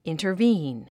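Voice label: "intervene", English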